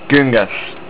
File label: Hill, estonian; Küngas